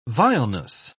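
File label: "vileness", English, British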